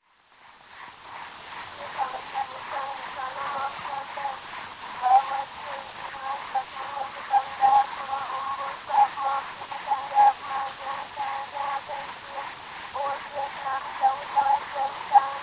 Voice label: Zulu Recordings; Father Franz Mayr; Prayers